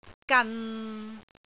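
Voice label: "gan6", Cantonese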